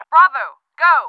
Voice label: English Go B